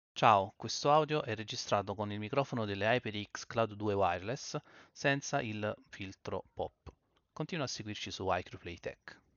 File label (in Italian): Audio con microfono HyperX Cloud II Wireless senza filtro antipop